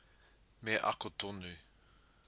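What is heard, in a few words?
"me ako tonu", Māori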